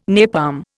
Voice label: "napalm", Indian

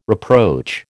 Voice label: "reproach", American

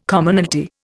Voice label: "Commonalty", Indian

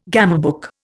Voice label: "gamebook", Indian

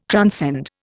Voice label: "transcend", Indian

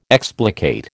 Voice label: "explicate", American

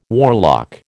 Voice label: "warlock", American

